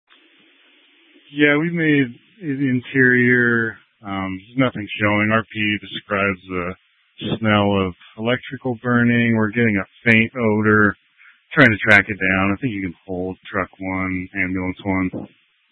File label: Tactical Incidents, scanner